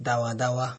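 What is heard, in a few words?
"dawadawa", Nigerian Pidgin, male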